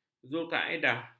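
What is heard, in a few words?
"zulkaedah", Malay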